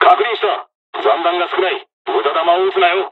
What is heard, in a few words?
dialog, dialogs japanese; War Thunder